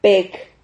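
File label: "peg", Spanish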